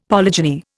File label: "polygeny", Indian; Dictionary